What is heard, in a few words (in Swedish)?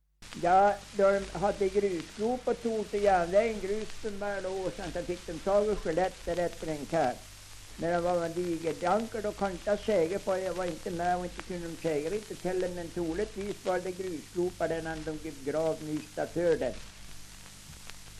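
Tuna Medelpad, svenska sagor dialekter; Folkminnen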